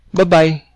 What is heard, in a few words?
goodbye, cebuano